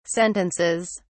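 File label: "sentences", British English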